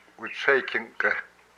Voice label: back of my head, hupa

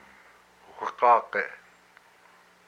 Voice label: "my tonsils", hupa

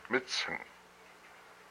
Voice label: "meat", hupa

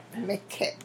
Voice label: its tail, hupa

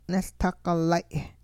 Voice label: a place halfway up Bald Hill, hupa

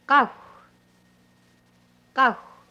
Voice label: yew wood, hupa

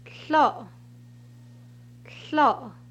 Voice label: laugh, hupa

